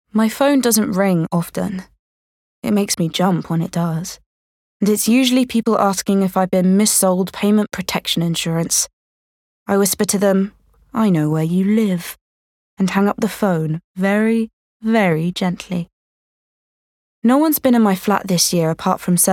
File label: Audiobook; regional accents